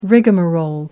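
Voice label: "rigamarole", British English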